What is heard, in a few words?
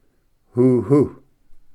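"huhu", Cherokee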